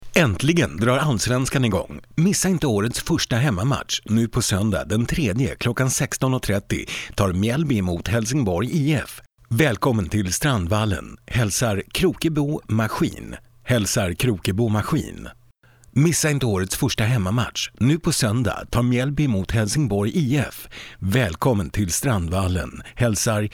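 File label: swedish male narration; voice demos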